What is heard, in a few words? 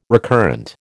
"recurrent", American